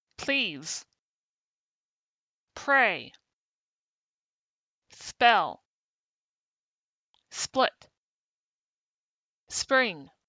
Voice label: p initial clusters, english phonetics